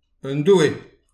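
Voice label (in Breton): "un doue", Breton; petit precis de prononciation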